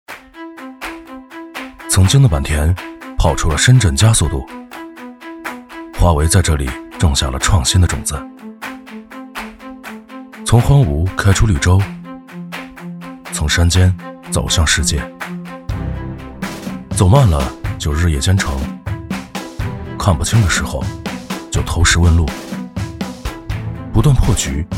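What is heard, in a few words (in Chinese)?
旁白人文历史; 五和片区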